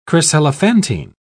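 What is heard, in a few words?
"chryselephantine", British English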